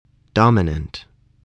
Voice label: "dominant", spelling